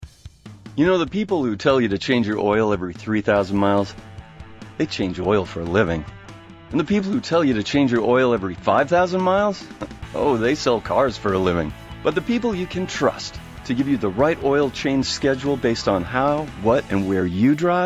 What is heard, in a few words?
VO 30 sec w music; Apollo; Auto Zone